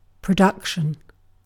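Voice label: "production", British English; pronunciations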